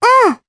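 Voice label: Vox Japanese; Cleo; kingsraid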